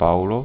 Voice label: "paula", Occitan